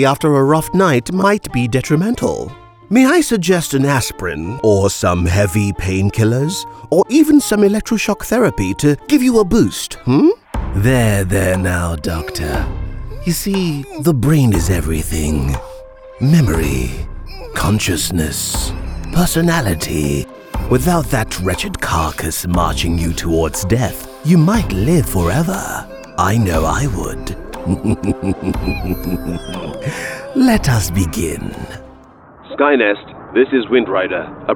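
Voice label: ANIMATION, New Zealand English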